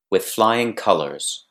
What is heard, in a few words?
"with flying colors" idiom; English